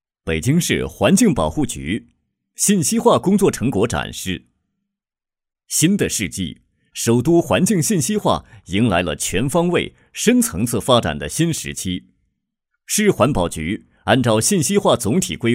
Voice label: 男 专题; 北京环保局成果展示

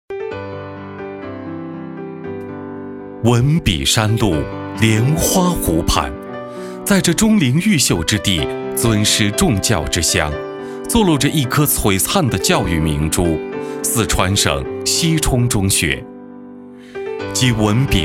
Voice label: 男 专题; 西充中学专题

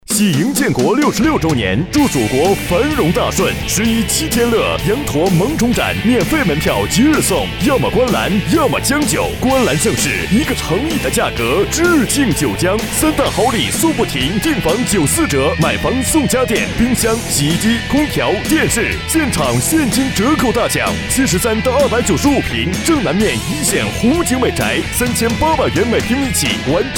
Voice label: 男95-广告-电台广告激情地产

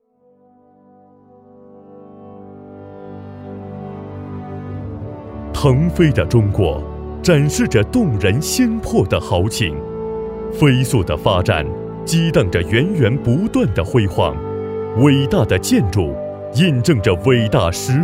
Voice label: 男95-专题-宣传片企业大气稳重

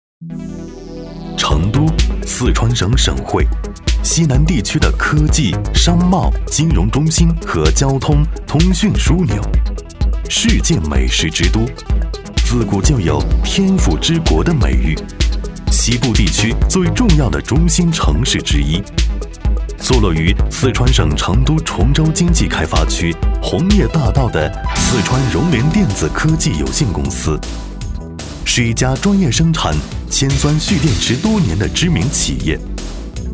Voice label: 男 专题 招商片 大气科技

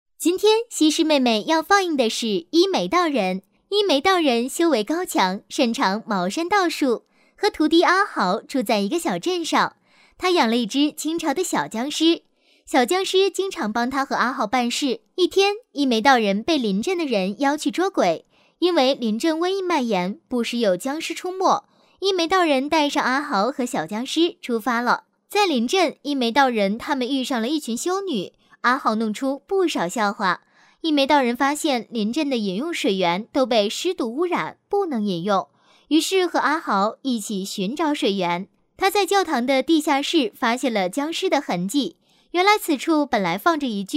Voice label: 女 解说 甜美活泼; 西施放松会

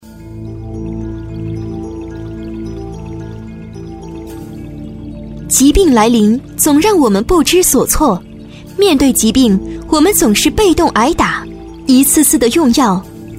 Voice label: 广告 沉稳; 国药全鹿大补丸

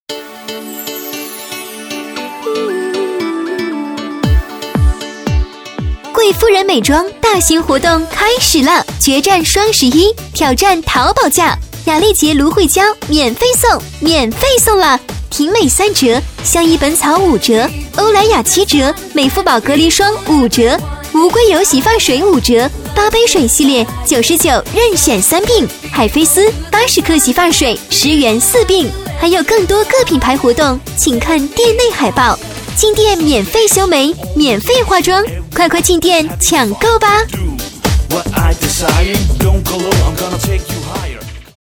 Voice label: 女-促销-美妆（激情青春）; 贵夫人

